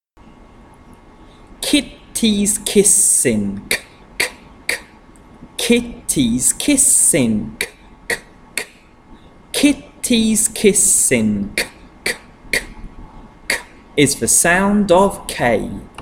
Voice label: consonant chant k